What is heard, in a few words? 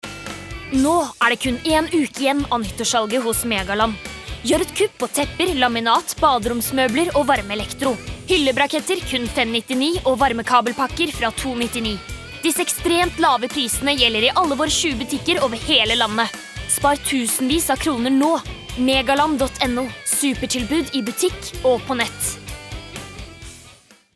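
Norwegian hard sell, female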